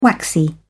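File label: "waxy", British English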